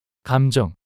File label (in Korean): "감정" 단어 남자